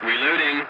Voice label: voice message reloading, english; dialogs; War Thunder